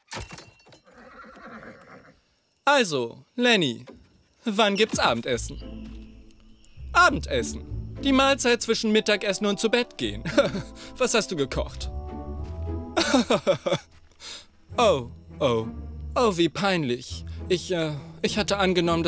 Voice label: Animation